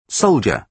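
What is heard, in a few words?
"soldier", British English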